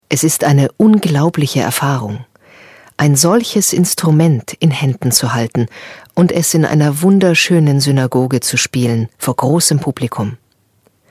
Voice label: voice over; tel aviv